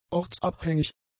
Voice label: "ortsabhängig", German; adjectives